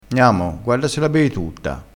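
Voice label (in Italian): "beo", vocabolario dialettale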